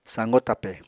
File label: "sañgo tape", Apatani